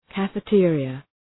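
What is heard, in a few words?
"cafeteria", English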